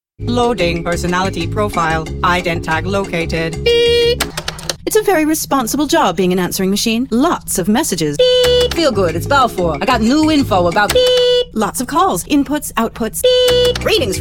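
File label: American English, Animation; voice demos; GoLocalise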